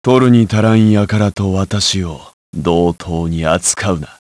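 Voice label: vox trs Japanese; Lusikiel; kingsraid; jp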